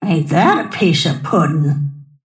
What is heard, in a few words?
lasthit pain; snapfire